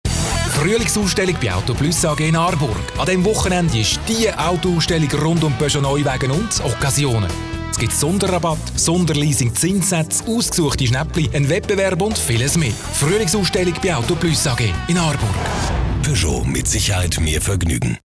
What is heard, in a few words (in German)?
Werbung CH AG